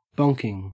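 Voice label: "bonking", Australian English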